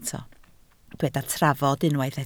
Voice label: "trafod", Welsh